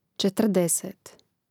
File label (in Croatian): "četrdeset", Hrvatski